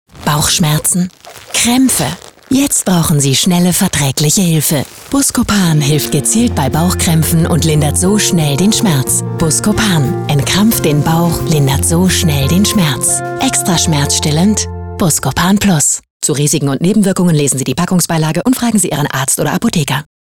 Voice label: tv pharmawerbung; buscopan

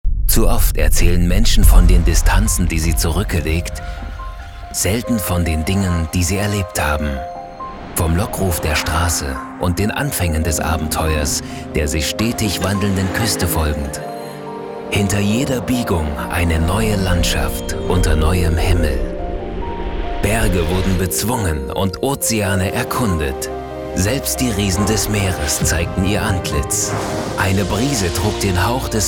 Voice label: doku garden route in suedafrika

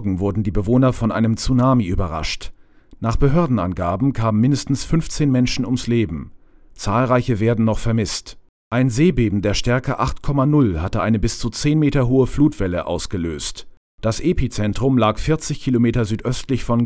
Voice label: nachrichten

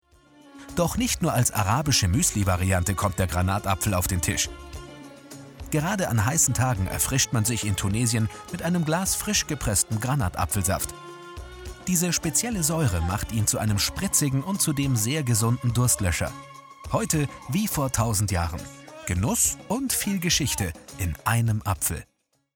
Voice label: off doku granatapfel

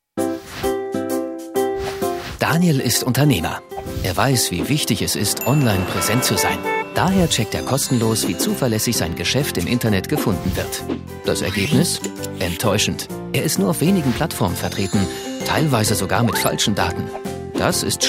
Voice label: erklaerfilm

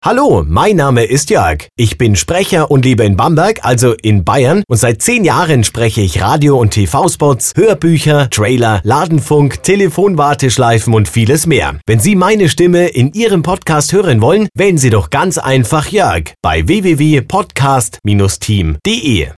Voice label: sprecher podcast; teamde

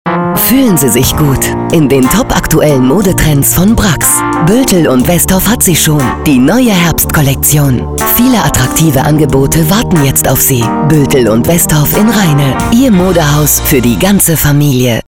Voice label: werbung warm

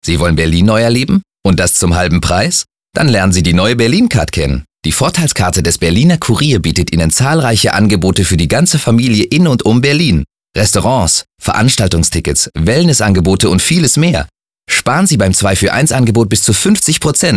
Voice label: funkspot; berlin-card

